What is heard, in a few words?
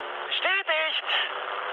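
German player killed enemy mood high, speech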